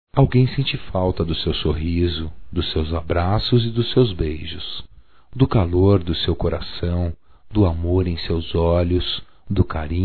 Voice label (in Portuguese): VOCE CHEGOU, Só Voz, masc; Telemensagens; Telemensagens 2017